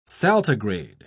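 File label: "saltigrade", British English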